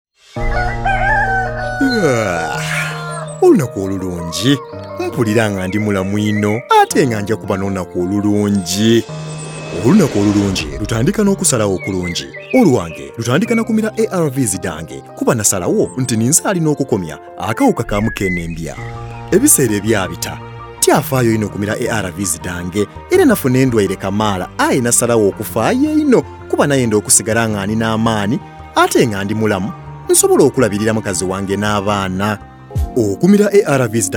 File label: HIV Spot Lusoga; Viral Load Suppression; radio spot